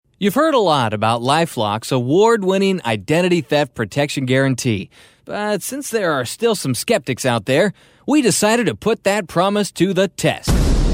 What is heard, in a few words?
USA